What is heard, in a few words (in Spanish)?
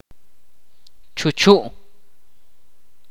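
"pequeño", Ch'orti'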